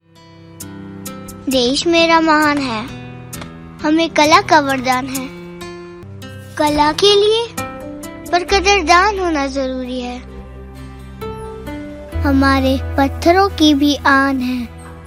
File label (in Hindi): hindi